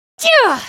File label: spellcast effort; Irelia